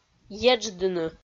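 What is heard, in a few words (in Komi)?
"едждыны", Komi, female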